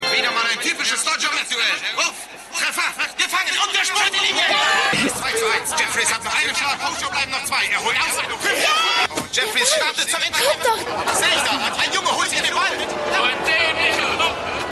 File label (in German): Stadionsprecher; Stimmproben